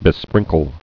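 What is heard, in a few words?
"besprinkle", spoken word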